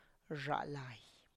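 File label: "rzhalay", gum, Tlacochahuaya Zapotec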